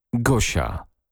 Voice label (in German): "gosia", polnische maedchennamen